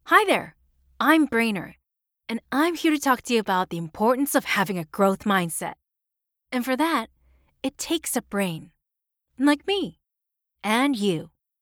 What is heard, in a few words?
english others